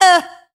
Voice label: "eh", voice; ooh ahh

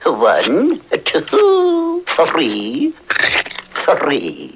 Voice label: "1 2 3" sniff; Voice; numbers and letters

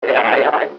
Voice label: "ay yi yi" CARTOON HEAD SHAKE; Voice

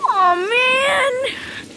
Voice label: aw man girl, Voice; ooh ahh

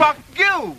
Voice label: "fuck you", male; expletives

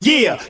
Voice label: "yeah", group of men

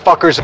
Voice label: fuckers male; Voice; expletives

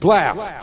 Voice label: "blaow", ooh ahh

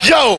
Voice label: "yo", Voice

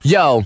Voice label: "yo", Voice

